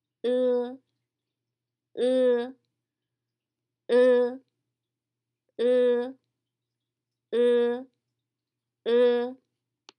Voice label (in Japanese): 曖昧母音 e